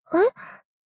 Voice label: shock